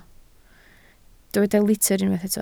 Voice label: "litr", Welsh